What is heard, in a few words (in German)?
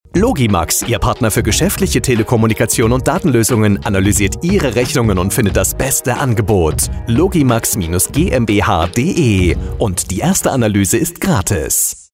Funkspot; Logimax GmbH